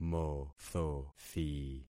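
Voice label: "mothothi", concatenated syllables; Affixing experiment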